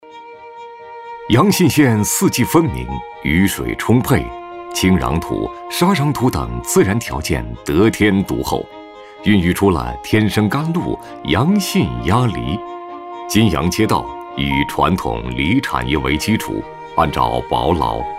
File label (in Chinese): 男 专题片 乡村振兴 扶贫兴农